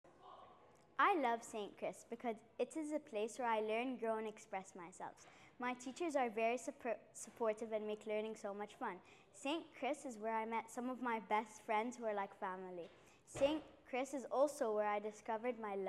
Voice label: Testimonial